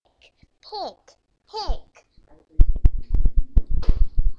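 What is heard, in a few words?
"pick", spoken word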